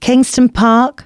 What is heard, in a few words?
announcements, female